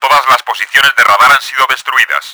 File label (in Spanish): all radar down, Spanish; Battle Engine Aquila; XBOX